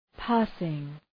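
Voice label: "passing", English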